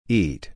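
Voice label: "eat", English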